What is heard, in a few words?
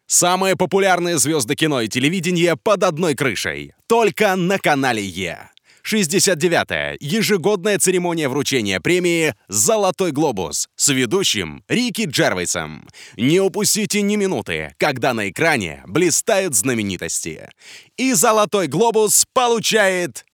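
Russian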